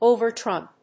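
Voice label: "overtrump", English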